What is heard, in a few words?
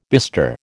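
"bestir", American English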